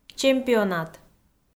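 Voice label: "чемпионат", Komi, female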